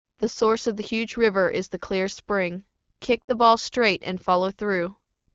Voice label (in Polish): klasyczny dzwiek AMR NB w jakosci jaka daje tradycyjne polaczenie 2G i 3G